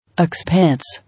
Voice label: "Expanse", English